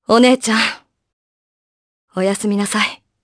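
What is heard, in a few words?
voice dia, voices heroes, Japanese; Naila; kingsraid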